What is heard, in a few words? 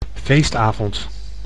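"feestavond", Dutch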